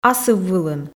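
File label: "асыввылын", Komi, female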